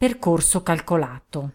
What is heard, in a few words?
Route Calculated, Italian female